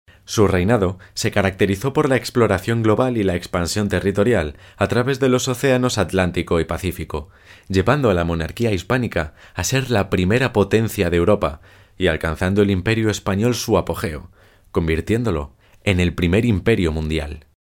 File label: locutor spanish voiceover